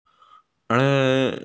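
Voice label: "la4", Mandarin Chinese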